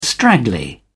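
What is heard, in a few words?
"straggly", British English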